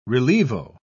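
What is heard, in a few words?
"relievo", British English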